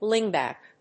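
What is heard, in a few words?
"slingback", English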